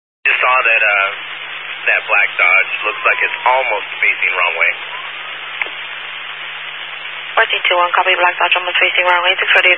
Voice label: Highway Patrol, Phoenix Metro West Area, scanner